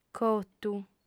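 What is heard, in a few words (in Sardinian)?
"cotu", female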